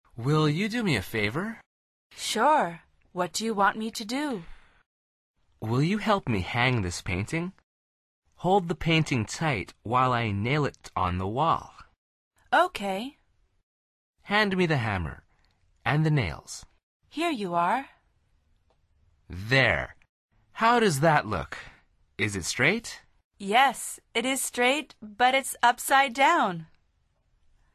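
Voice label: dialog, Conversación en Ingles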